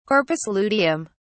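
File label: "corpus luteum", British English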